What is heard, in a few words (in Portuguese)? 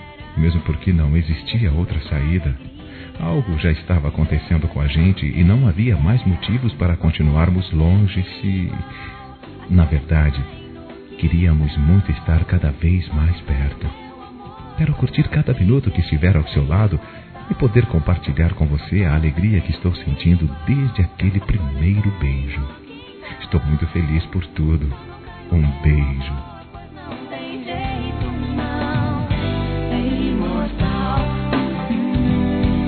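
COMEÇO NAMORO, masculino; Telemensagens; Telemensagens 2017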